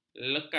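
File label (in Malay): "lekas", Malay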